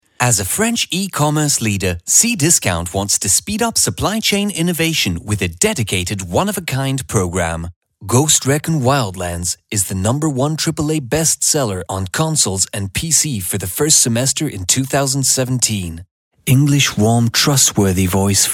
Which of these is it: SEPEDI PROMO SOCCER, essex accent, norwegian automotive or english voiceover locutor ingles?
english voiceover locutor ingles